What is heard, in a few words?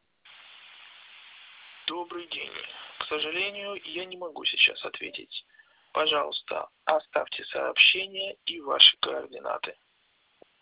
voicemail